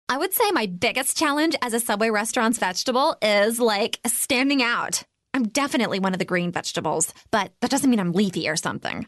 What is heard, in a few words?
Valley Girl